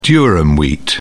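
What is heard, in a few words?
"durum wheat", British English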